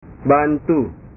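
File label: "bantu", Indonesian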